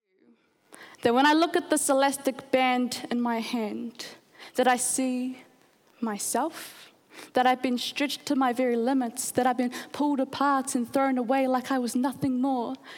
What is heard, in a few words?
IMPROMPTU Snr English; Korimako